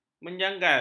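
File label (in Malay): "menjagal", Malay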